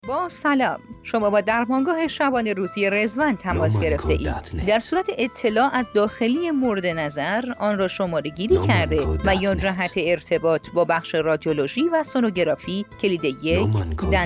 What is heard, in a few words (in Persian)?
نمونه صدای گوینده حرفه ای; پروژه درمانگاه رضوان